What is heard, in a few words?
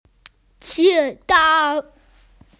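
"jing cha" (police), Chinese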